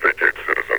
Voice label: vacate citizen, metropolice vo; Half Life Alyx